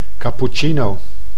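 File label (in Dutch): "capuccino", Dutch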